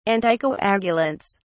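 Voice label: "anticoagulants", British English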